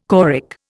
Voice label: "choric", Indian

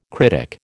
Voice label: "critic", American

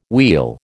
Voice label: "wheal", American

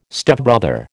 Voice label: "Stepbrother", American

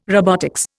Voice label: "robotics", Indian

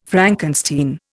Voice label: "Frankenstein", Indian

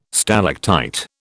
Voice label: "stalactite", British

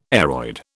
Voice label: "aroid", British

